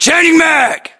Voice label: inform reloading generic, US; localized english; battlechatter